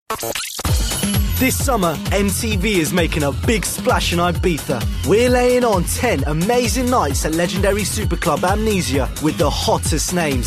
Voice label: Commercials